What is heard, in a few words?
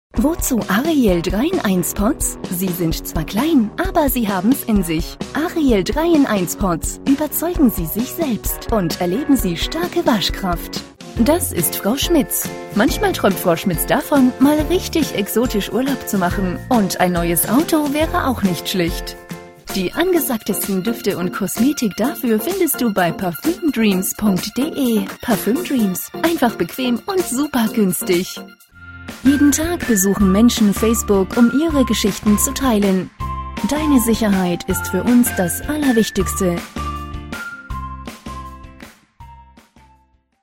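German female; lektoring; de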